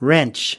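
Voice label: "wrench", US English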